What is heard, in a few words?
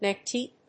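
"neck deep", English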